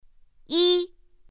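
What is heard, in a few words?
"yi", Mandarin Chinese pinyin; pinyin2; pinyinji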